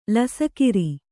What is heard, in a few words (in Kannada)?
"ಲಸಕಿರಿ", kannada